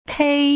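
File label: "kei", Mandarin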